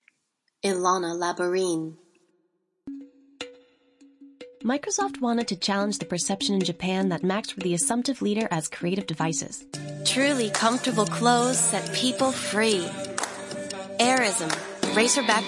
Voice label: mix cm

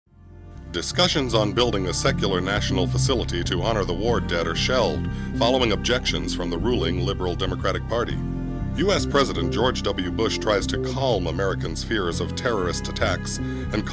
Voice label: news

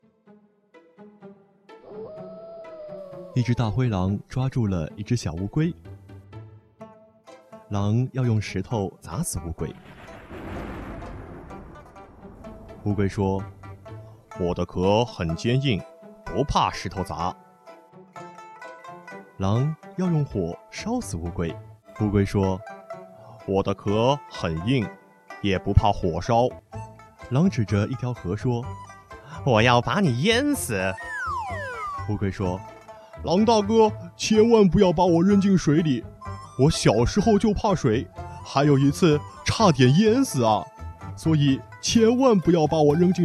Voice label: story

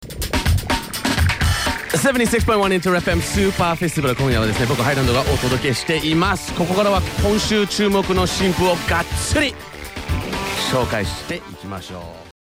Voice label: dj

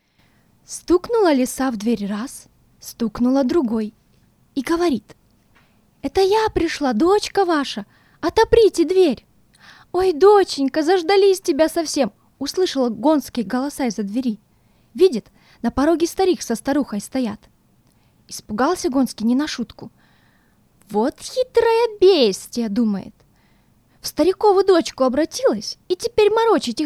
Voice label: story

